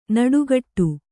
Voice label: "ನುಡಿಗೊಡು", kannada

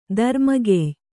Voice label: "ದರ್ಮಗೆಯ್", Kannada

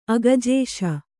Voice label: "ಅಗಜೇಶ", Kannada

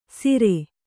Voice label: "ಸೀರೆ", Kannada

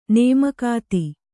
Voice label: "ನೇಮಕಾತಿ", Kannada; nēmakāti; noun

